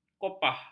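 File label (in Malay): "kopah", Malay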